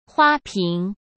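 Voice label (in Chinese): "花瓶", Mandarin Chinese; hsk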